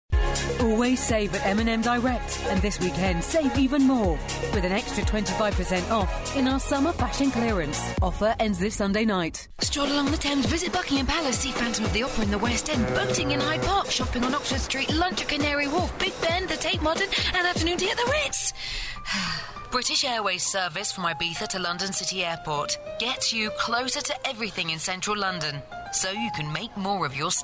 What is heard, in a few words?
COMMERCIAL